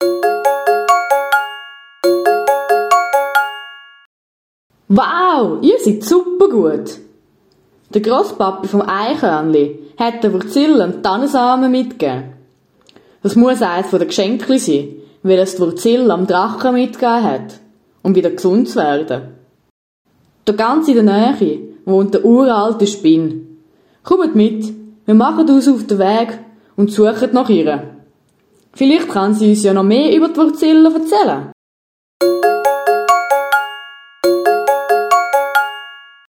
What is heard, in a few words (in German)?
Zwischentext Eichhörnchen mit Jingle